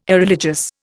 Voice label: "Irreligious", Indian